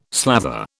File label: "slather", British